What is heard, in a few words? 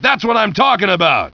talking, fgrunt